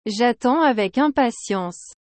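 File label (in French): J'attends avec impatience, French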